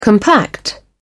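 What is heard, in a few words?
"compact", British English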